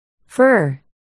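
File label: "fur", spoken word, articulation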